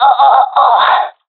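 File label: death, professional female; vo; Counter Strike Model Pack; CS:GO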